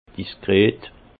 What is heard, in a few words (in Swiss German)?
"diskret", Alsace; vin; discret